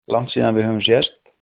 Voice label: long time no see, Icelandic